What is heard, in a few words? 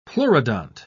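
"pleurodont", British English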